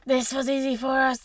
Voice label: female harsh

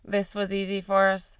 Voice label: female tense

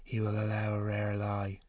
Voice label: male breathy

